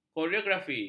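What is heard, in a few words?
"koreografi", Malay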